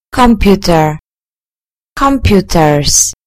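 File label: "computer", British English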